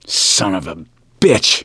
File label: reaction negative, voice; gambler